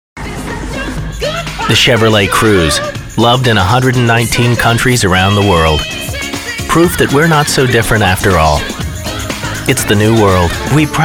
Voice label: Commercial

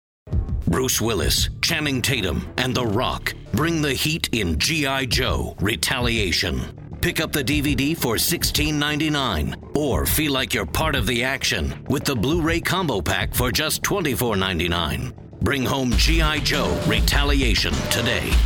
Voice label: Trailer Voice

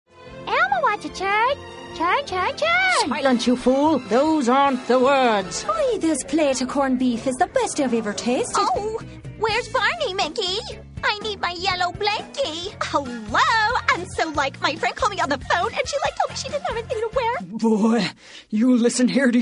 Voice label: animation

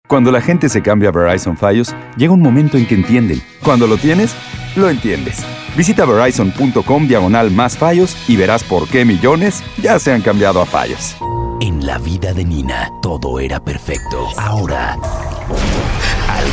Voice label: commercial

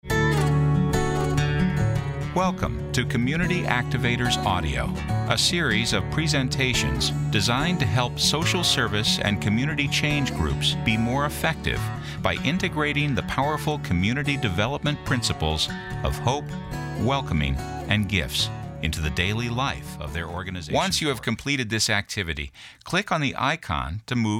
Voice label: E Learning

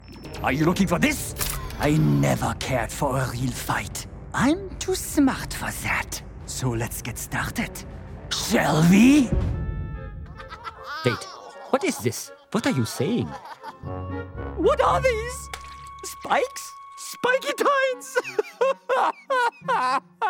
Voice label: Animation VO

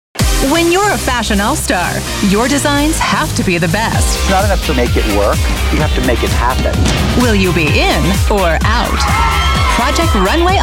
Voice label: Promo

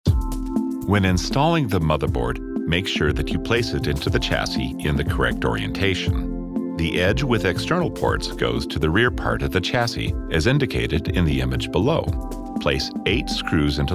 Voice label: Narr

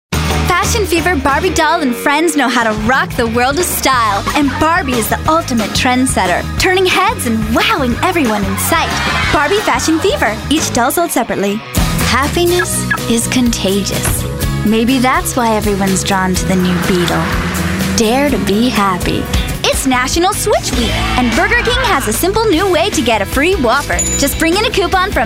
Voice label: Commercial

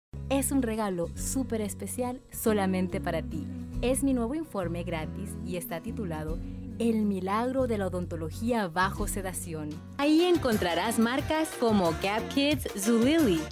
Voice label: Spanish Comm

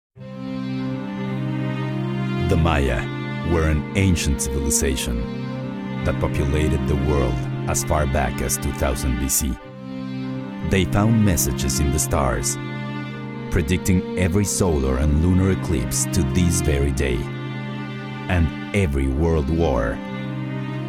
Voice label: English

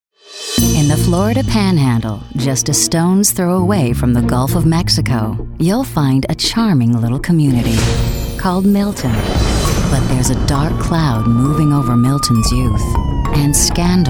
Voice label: promo

